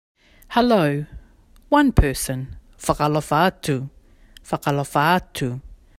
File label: Niue hello to one person; 2022 Pacific Language Weeks